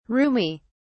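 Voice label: "roomie", English, British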